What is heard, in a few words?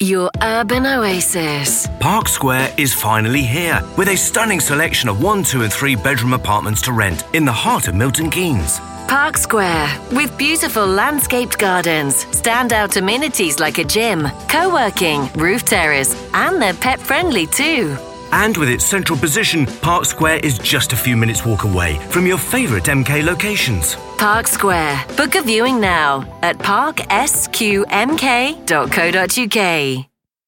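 radio commercial call to action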